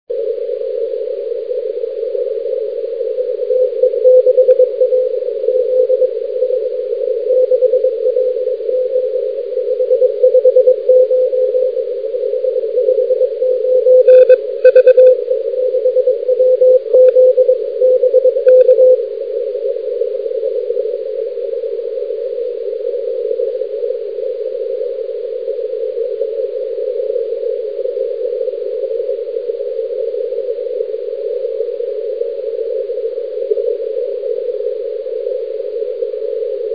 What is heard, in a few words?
qso